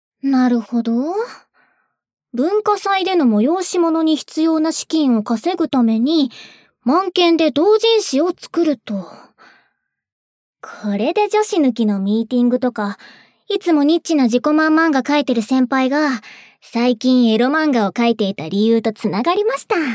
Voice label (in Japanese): プロローグ ボイスオンリー, ASMR; エロ漫画でおまんこ描くために後輩がおまんこみせてくれる(それ以上もしてくれる); バイノーラル